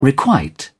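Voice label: "requite", British English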